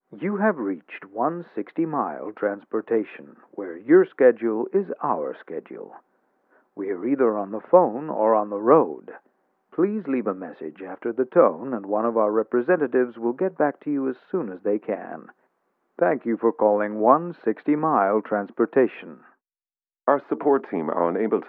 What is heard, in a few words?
talkie box